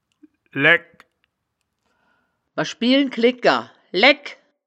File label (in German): "laegk", wittlich